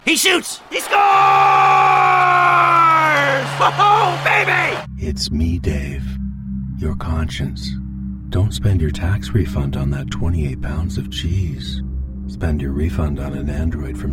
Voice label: Male Voice Over Talent Commercial Character